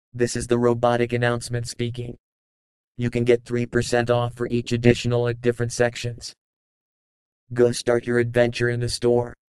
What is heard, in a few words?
supermarket announcement